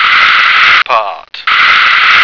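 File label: "pot", American English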